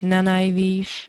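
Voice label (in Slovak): "nanajvys", Slovak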